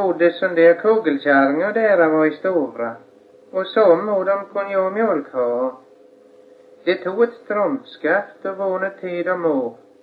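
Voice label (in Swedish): Torslunda Öland, dialekter; Folkminnen; Svenska sagor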